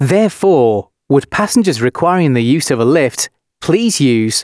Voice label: announcements male

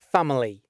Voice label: Family, announcements male

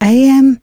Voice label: announcements female

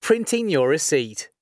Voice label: print rec, announcements male

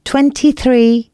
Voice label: announcements female

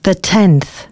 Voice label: announcements female

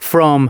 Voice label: announcements, male

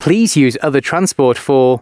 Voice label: announcements, male

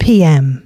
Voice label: announcements, female

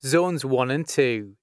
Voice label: Zones 1+2, announcements male

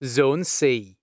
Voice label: Zone C, announcements male

